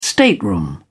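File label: "stateroom", British English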